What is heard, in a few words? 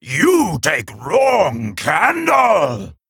Male Kobold